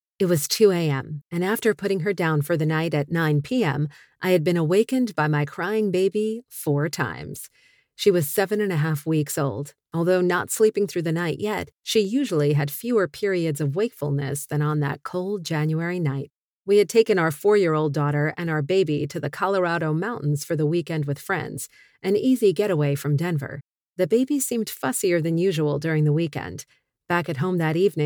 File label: english american audiobooks